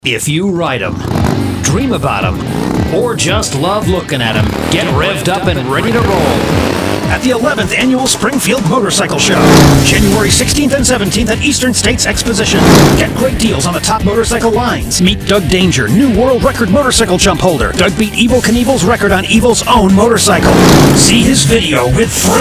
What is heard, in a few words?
Radio Ad